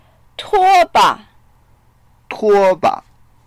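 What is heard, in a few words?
"tuo1ba", Mandarin Chinese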